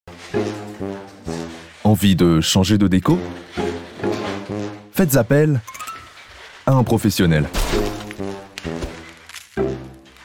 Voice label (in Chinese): 法语男, 外语, 小语种, 浑厚